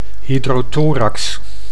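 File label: "hydrothorax", Dutch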